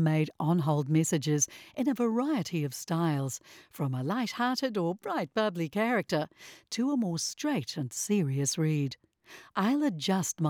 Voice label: FEMALE